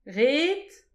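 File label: "ret", Breton; petit precis de prononciation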